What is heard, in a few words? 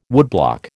"woodblock", American